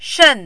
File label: "shen4", Mandarin